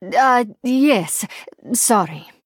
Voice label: Female Draenei Sorry

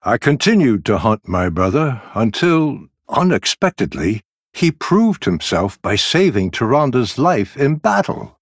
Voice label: Male Night Elf; Malfurion

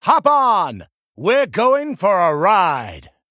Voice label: Male Blood Elf Play

